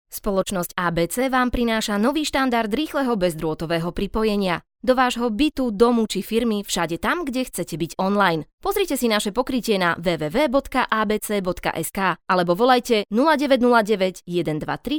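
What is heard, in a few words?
Slovak, female, commercial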